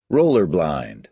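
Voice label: "roller blind", American English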